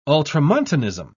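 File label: "ultramontanism", British English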